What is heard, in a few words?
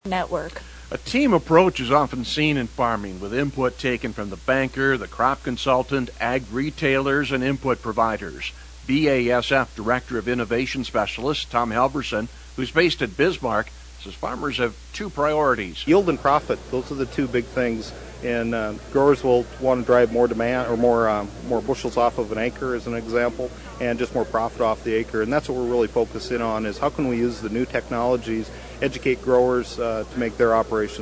air check